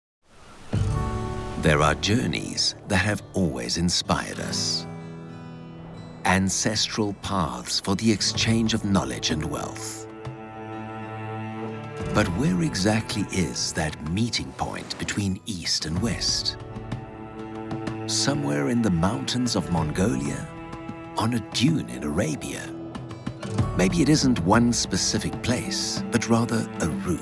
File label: ENGLISH enticing